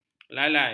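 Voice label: "lalai", Malay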